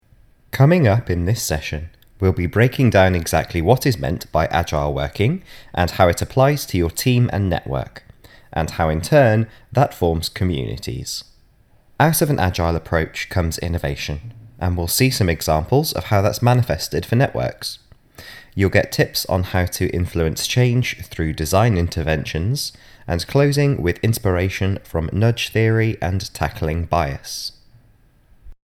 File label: Narration; Radius; AI